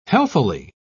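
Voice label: "healthily", British English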